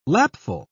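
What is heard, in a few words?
"lapful", British English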